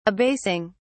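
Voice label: "abasing", British English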